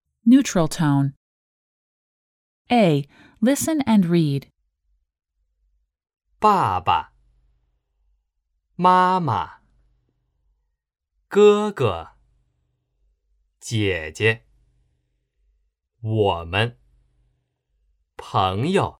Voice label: Neutral Tone